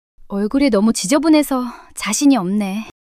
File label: shy; monologue